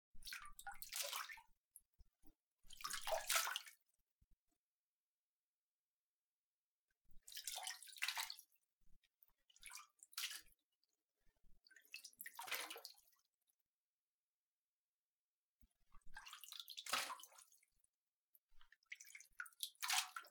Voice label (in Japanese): お風呂ですーぱーシャンプー炭酸タイム♪, ASMR